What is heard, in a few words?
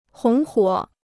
"红火", Chinese